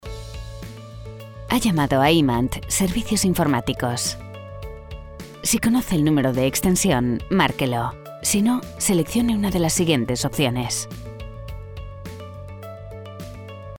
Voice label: spanish castilian ivr

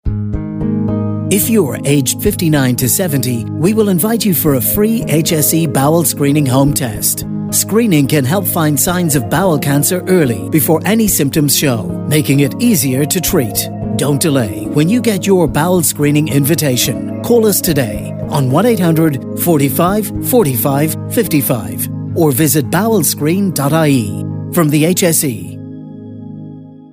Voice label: english irish announcements, Irish English; Annonces

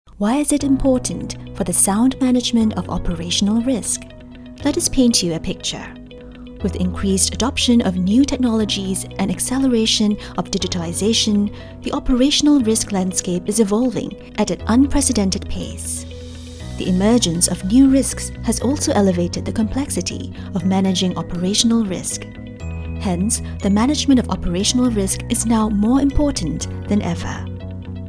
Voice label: english international e-learning, Singapur; Una actriz de voz cálida, amigable y versátil con atractivo global.; singaporean english

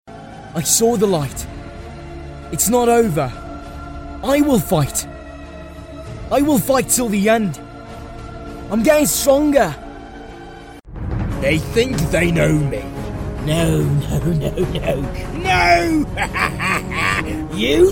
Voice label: english south african video games

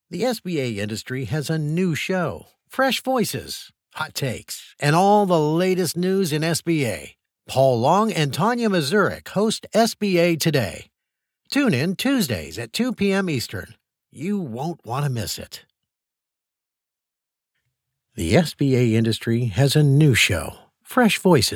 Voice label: english american podcasts

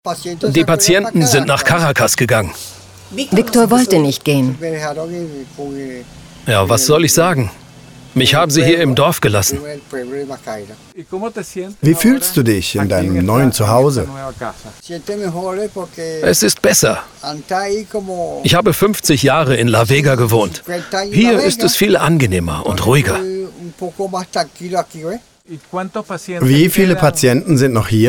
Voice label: german documentaries, voice actors

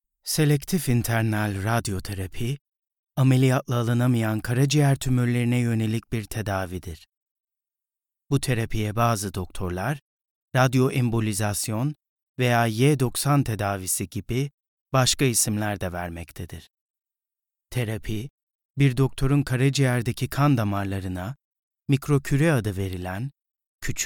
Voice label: turkish e-learning

